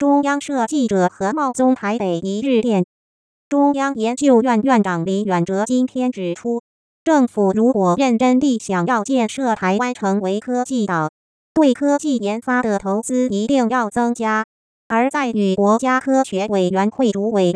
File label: Synthetic Speech, News reporting, Mandarin, Using spectrum-progression model and HNM, Pitch: 220Hz; Avg. syllable duration: 220ms